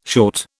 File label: "short", British